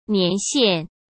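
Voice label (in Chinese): "年限", Mandarin Chinese; chinese flashcards; hsk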